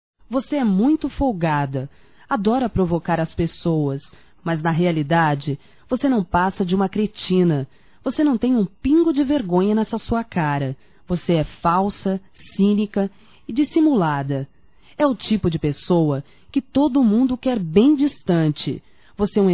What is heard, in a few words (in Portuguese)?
Xingando Geral Mulher Para Mulher Feminino; Telemensagens 2017